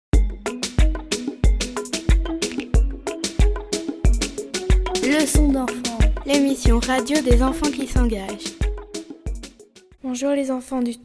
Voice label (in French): Message d'accueil; Gare